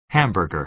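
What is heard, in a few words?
"hamburger", American English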